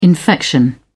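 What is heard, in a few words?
"infection", British English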